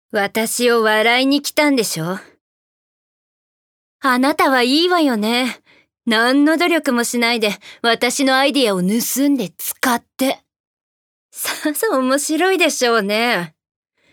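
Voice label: foreign film dubbing, Japanese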